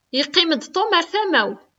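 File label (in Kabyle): Yeqqim-d Tom ɣer tama-w., Kabyle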